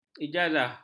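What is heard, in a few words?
"ijazah", Malay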